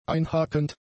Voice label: "einhakend", German; adjectives

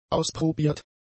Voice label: "ausprobiert", German; adjectives

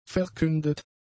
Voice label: "verkündet", German; adjectives

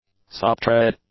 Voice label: "sub tread", english; nouns

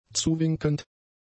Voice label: "zuwinkend", German; adjectives

